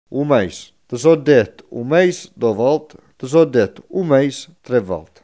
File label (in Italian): mese, coratino